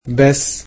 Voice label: "but", egyptian

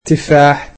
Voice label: "apples", egyptian

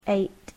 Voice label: "eight", English

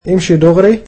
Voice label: Go straight, egyptian

